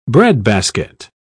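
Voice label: "breadbasket", British English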